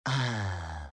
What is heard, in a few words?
happy; shredder